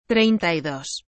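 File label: "treinta y dos", Spanish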